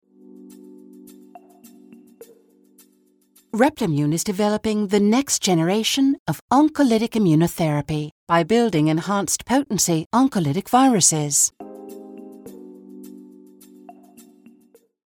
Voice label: British; Replimune Cancer Treatment

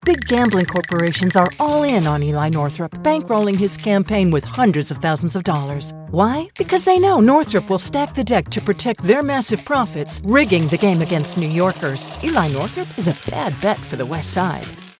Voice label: political spot

